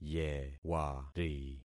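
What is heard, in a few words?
"yewari", concatenated syllables; Affixing experiment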